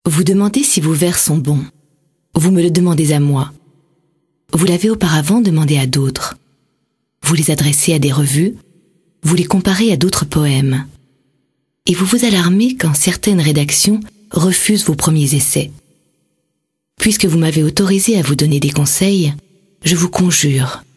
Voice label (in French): NARRATION, comedienne; Rilke